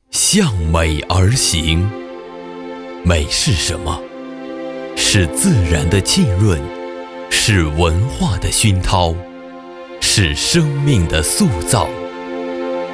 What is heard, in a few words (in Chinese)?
男 专题; 向美而行